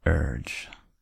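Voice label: "urge", American English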